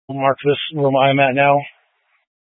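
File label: Incident Tac, Scanner audio recording; Incident Tac 3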